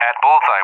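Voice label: "at bullseye", English, USA; DCS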